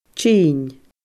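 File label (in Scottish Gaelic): "tinn", Scottish Gaelic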